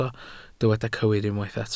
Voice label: "cywir", Welsh